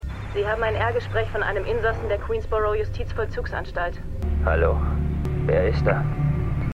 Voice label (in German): Telefonstimmen JVA